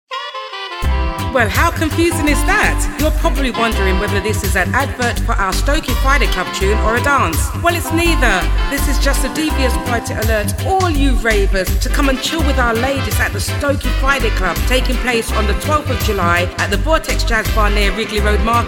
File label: Radio Promo; Stokey Friday Club